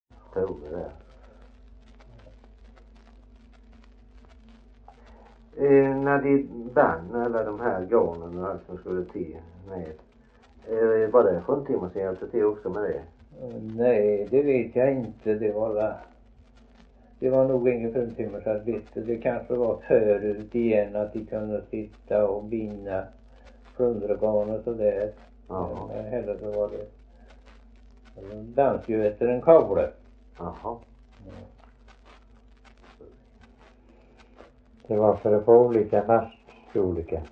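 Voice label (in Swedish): Värö Halland, Svenska sagor dialekter; Folkminnen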